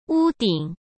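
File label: "屋顶", Mandarin Chinese; hsk; chinese-flashcards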